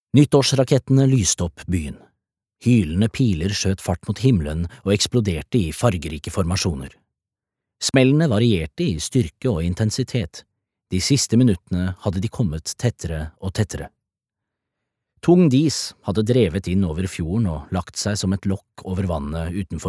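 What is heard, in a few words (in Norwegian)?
lydbok, Norwegian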